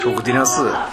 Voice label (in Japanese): "roguderasu", minami yamagata